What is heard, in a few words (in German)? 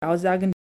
"Aussagen", fehlerbeispiele, ausspracheschulung